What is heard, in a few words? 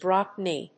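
"Drop Knee", English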